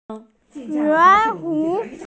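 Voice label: "awareness", Mandarin; jue2wu4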